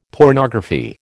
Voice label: "pornography", American